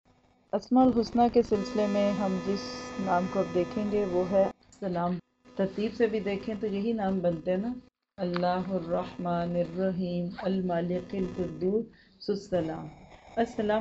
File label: mic noise in backgroung; Asma al Husna; As Salam